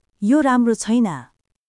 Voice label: Nepali, basic phrases; ネパール人技能実習生や留学生の皆さんと話すとき、「どうやって自分の気持ちを伝えたらいいんだろう？」と悩むことはありませんか？好き嫌いを伝えたり、何か必要なものを尋ねたりする場面では